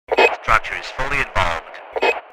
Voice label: Firefighter radio chatter structure is fully involved